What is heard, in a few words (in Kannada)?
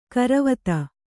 "ಗೊರವಿತಿ", Kannada